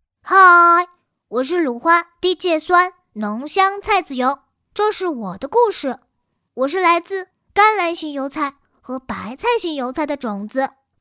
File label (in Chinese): 拟人广告类